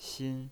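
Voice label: "xin", pinyin voice, first tone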